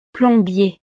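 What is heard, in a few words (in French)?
"plombier", French; fontanero; profesiones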